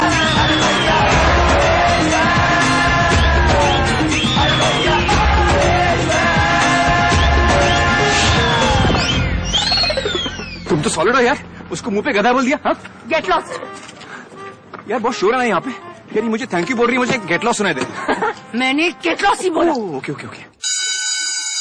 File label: dialogue movie